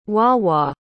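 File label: "wah-wah", English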